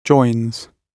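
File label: "joins", British English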